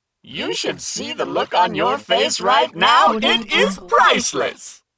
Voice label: robot vocal you should see the look on your face male compute